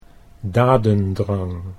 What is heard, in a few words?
"dadendrang", Dutch